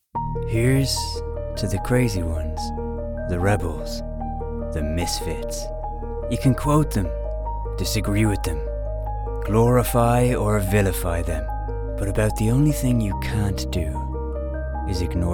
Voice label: commercial; Website Demo